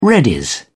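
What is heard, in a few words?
"readies", British English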